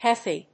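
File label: "heathy", English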